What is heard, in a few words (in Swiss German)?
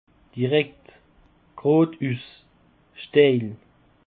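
"direkt", Alsatian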